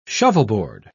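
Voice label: "shovelboard", British English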